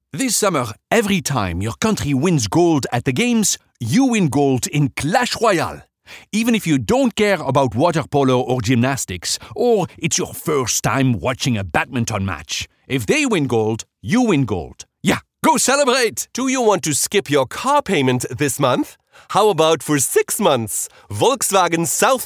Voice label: english international others; Global English voice talent

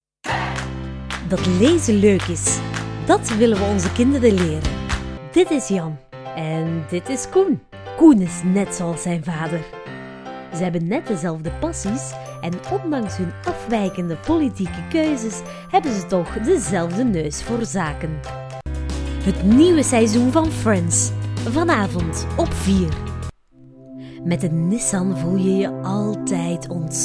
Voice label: flemish commercial

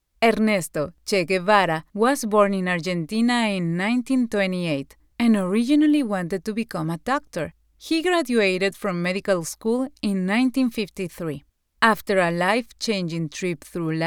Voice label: english audio guides

